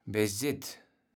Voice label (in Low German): "bejsit", kaevels platt